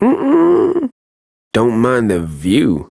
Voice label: Ambient Barks, en; Warframe; Mall Romance; Quincy

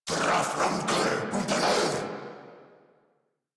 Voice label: Attack Corrupted Grineer Male

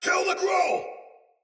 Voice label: See Player Shout Soldier Sword Agent Male; Battle; Sinecure Melee

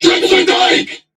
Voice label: Cover Me, Crewmen, en; Warframe; Corpus Crewmen; Crewman E; Dialog Barks Battle

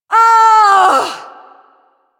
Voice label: Ability Long Cast, Barks; Warframe; Corpus Lich; Persona B

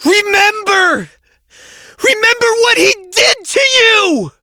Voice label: Operator Voice, en; Warframe; New War

